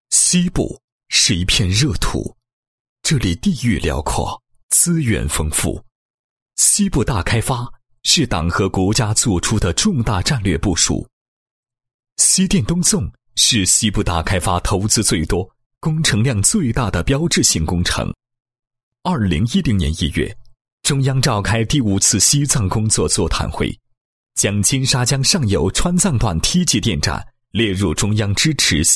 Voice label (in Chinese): 男 电力企业宣传 宣传片配音; 华电金上